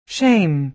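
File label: "shame", American English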